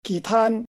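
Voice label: "皮蛋 pi2 tan4", Hakka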